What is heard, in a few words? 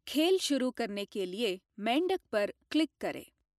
Click Frog VO, Hindi